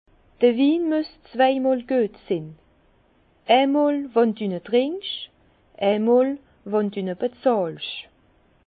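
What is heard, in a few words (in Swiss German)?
"zweimolguetsinn", Alsace Bas Rhin; Le vin doit être bon deux fois, une fois quand tu le bois, une fois quand tu le payes.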